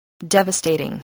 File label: "devastating", english spelling